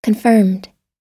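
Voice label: "confirmed", US English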